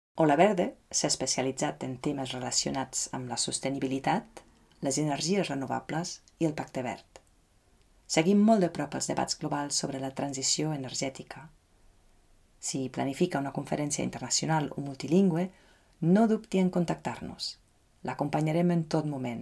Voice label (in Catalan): voz CAT